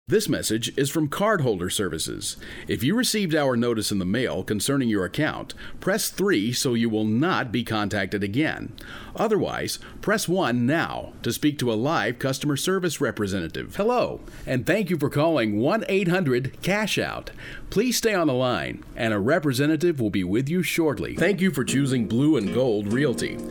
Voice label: Phone Hold IVR, Male

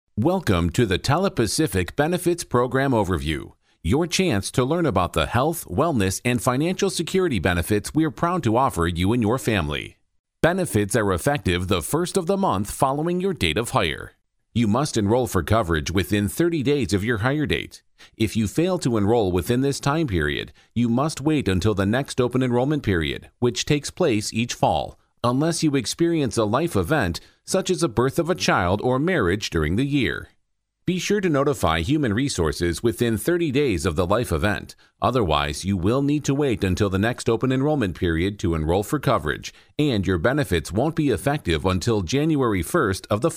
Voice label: eLearning Male

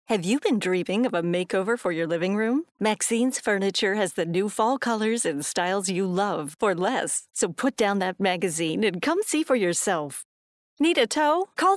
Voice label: Commercial Female

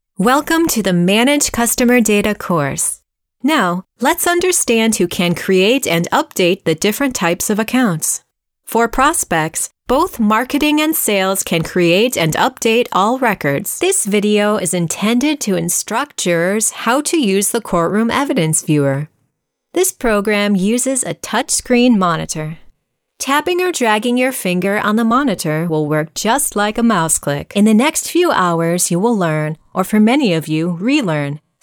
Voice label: eLearning, Female

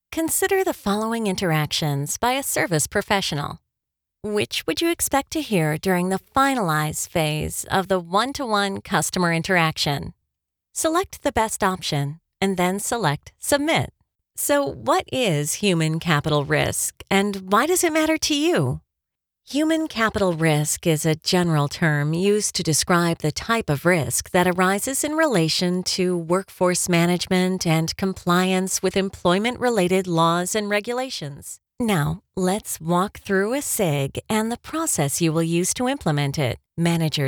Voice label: eLearning

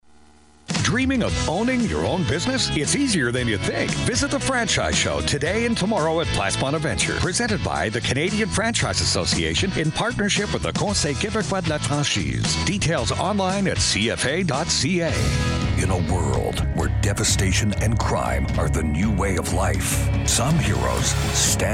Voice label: Commercial Male, Upbeat, Sincere, Friendly; Commercial Voiceover, Promo

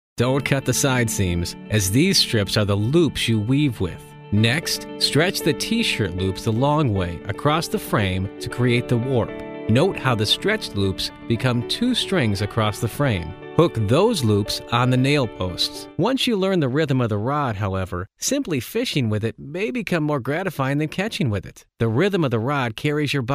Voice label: eLearning, Male

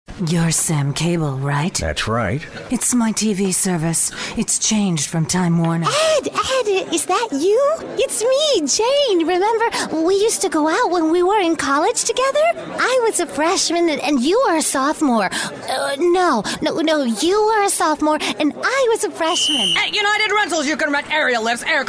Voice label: Characters Female, Confident, Warm, Friendly

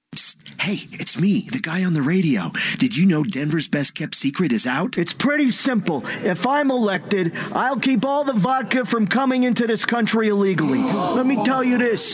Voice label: Commercial, Creative, Fun, Friendly

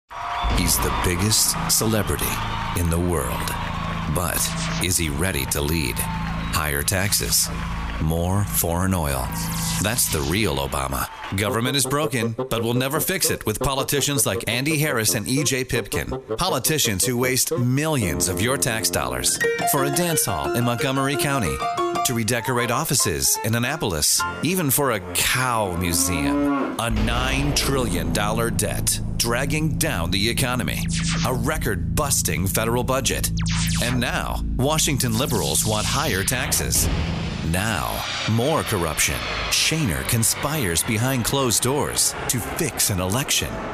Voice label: Political, Male

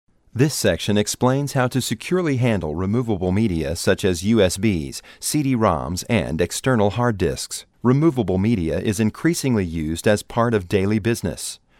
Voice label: eLearning Male

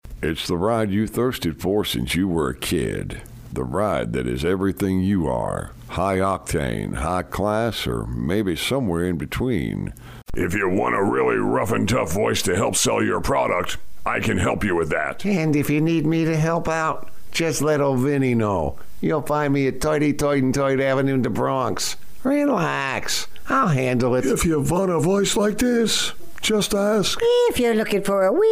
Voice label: Characters Male

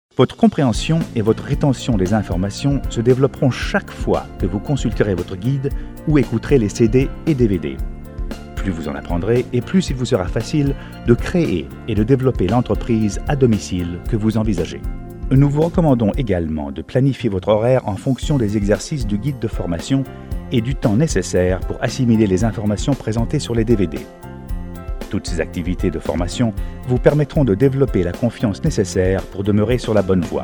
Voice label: Foreign Voices French Male Narration

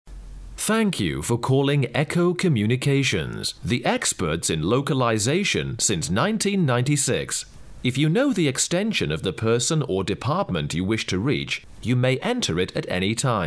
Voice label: british voice male phone hold IVR

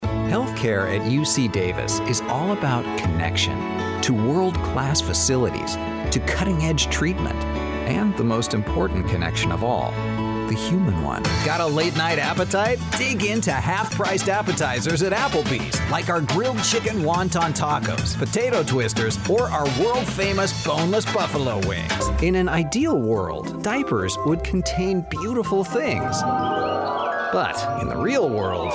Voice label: Commercial Male

